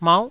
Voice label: "mauh9", Taiwanese syllable